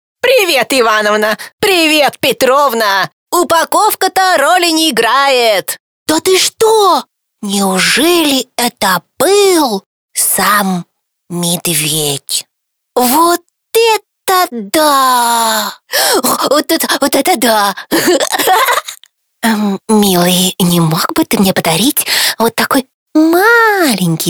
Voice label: Rus